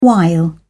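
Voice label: "while", British English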